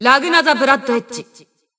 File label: System Voice; BBCP; Bullet